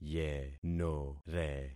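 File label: "yenore", concatenated syllables; Affixing experiment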